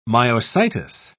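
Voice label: "myositis", British English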